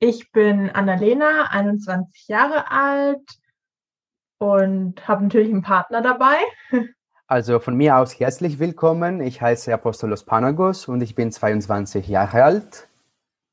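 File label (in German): Siebenbürgisch sächsischer Dialekt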